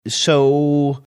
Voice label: drawn out so

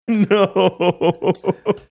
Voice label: saying no laughing

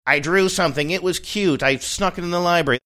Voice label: saying i drew something it was cute kid

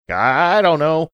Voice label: saying i dont know funny

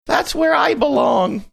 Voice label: saying that's where i belong funny

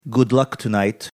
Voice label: good luck tonight Russian accent

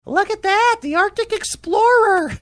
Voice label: saying look at that arctic explorer funny

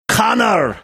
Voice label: Conor bad Irish accent

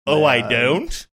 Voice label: funny

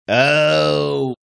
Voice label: drawn out ohhh

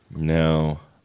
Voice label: sad no

